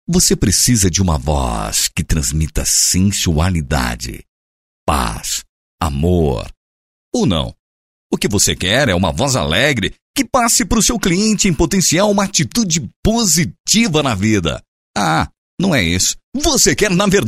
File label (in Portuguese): locutor